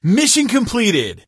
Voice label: mission completed, Male; Kenney's Sound Pack; Voiceover Pack